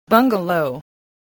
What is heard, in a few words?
"bungalow", spelling